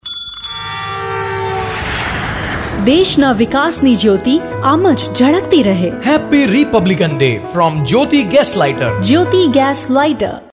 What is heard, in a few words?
gas lighter radio ad